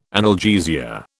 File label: "analgesia", British